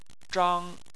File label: "zhang1", Chinese; Chinese Surnames